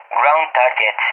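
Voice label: "ground targets", English, SPAIN; DCS; Allied Flight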